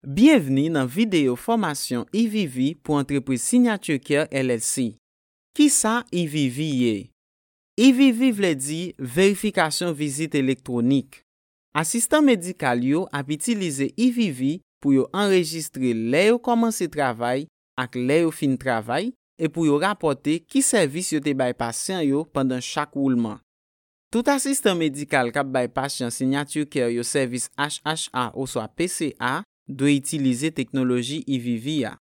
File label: haitian creole commercial